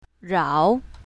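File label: "rao3", pinyin, south, female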